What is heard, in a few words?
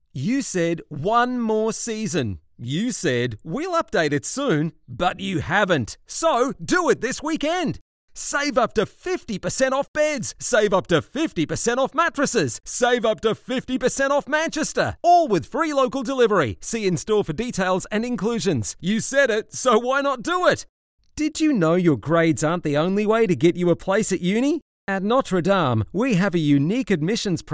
Retail Friendly